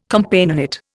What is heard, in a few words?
"Companionate", Indian